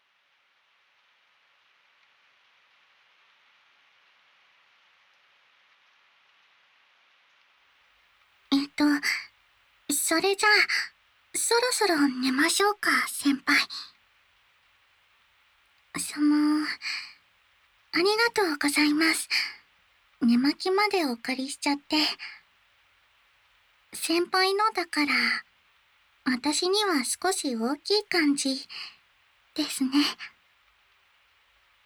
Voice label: 添い寝 告白; はじめてのお泊り; 愛菜の告白

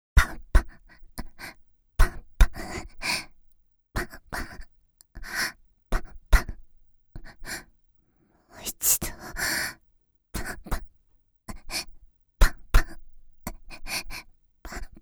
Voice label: （ささやき）

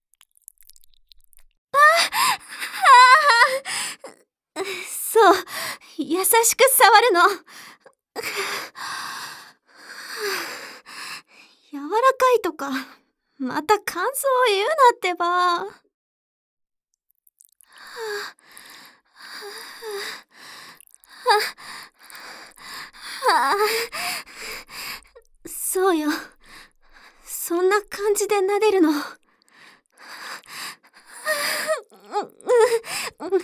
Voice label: 優しくさわってえ, ASMR

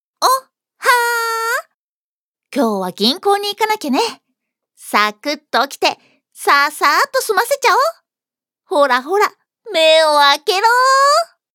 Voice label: ハイテンション, ハイテンションな女の子

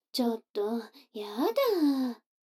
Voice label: 女の子台詞, ASMR

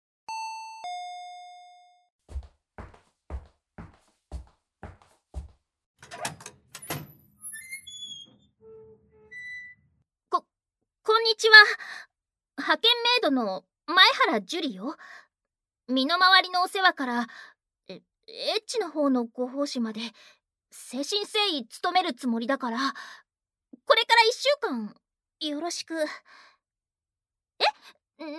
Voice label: ツンデレ耳舐め手こき, ASMR

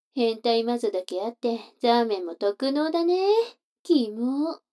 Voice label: 女の子台詞

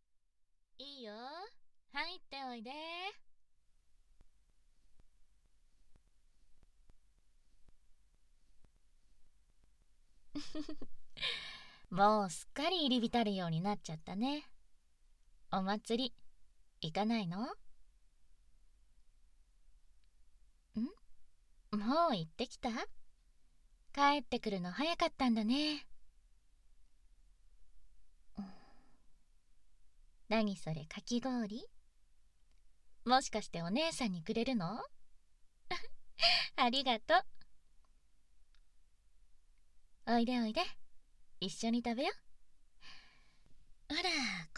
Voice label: ボイスのみ; 近所の家のお姉さんとボク

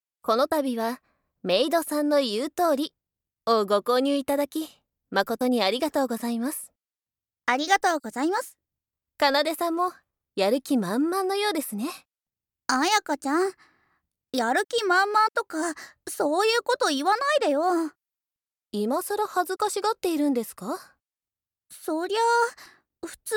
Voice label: メイドさんのご挨拶

